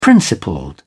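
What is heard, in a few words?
"principled", British English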